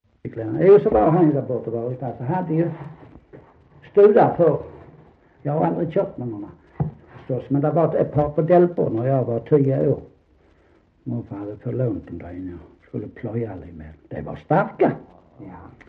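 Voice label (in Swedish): Sankt Olof Skåne, dialekter; Folkminnen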